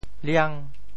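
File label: "liang5", Teochew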